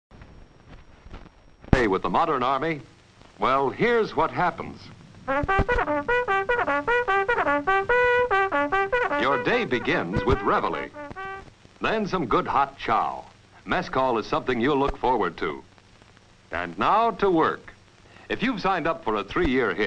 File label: Television Public Service Announcements and Program Promos